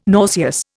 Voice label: "nauseous", Indian